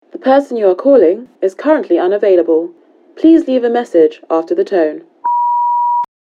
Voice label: Voicemail